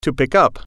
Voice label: pickup, englishAudio